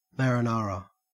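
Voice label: "marinara", Australian English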